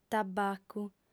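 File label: "tabbacu", female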